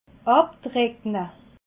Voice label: "abtreckle", alsace

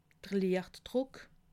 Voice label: "lichtdruck", alsace

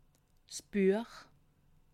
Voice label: "buech", alsace

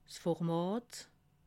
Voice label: "format", alsace

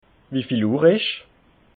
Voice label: "wieviel uhr", alsace

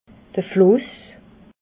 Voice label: "fluss", alsace

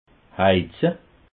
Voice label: "heize", alsace

